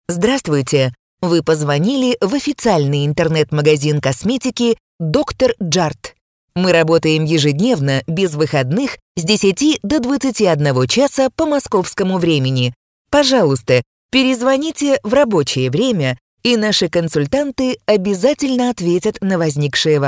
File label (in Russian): автоответчик; Доктор Джарт